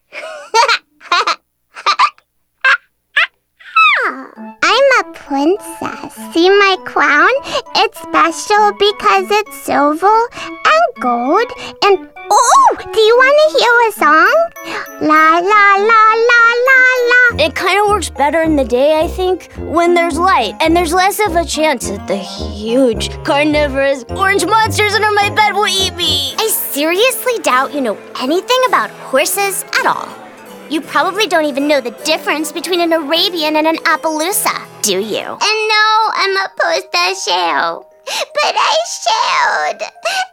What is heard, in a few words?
Kids